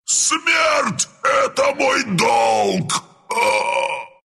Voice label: death, ru; Husk; Dota 2